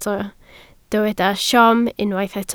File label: "siom", Welsh